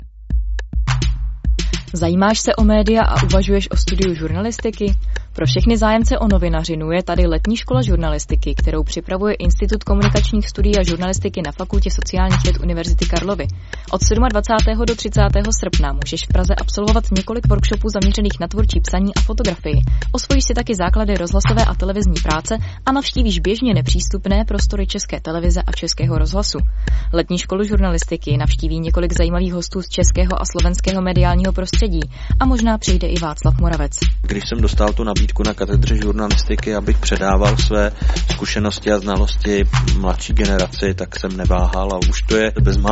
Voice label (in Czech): reklama